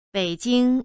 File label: "bei3jing1", Chinese